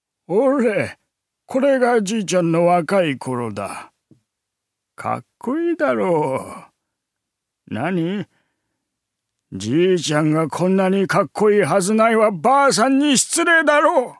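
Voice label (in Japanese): セリフ, 男性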